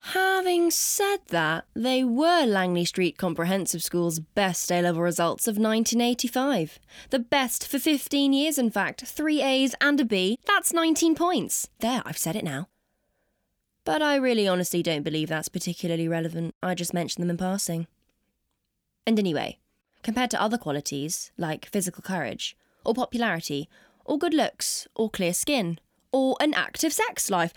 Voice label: Commercial Reel Narrative; Starter For Ten